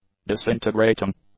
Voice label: "disintegrating", English; adjectives